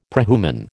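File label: "prehuman", American English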